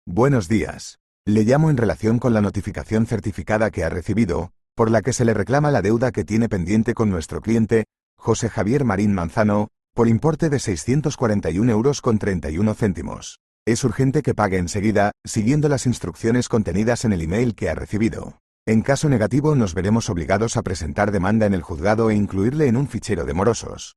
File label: audio call